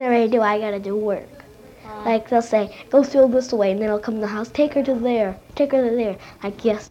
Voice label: Conversation with 12 year old white female Detroit Michigan; american english dialect